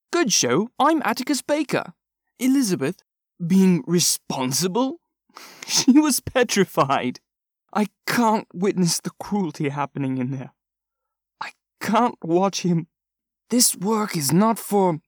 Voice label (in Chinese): 外籍英男 英式口音的角色扮演